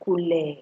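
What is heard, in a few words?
"kule", Nigerian Pidgin, female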